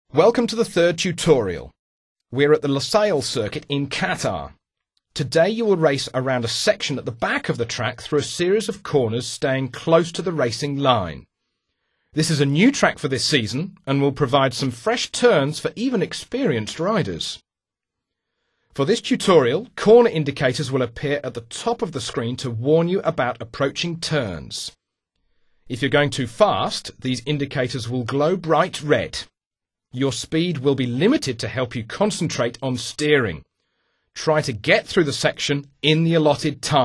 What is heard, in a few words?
cornering english; MotoGP 3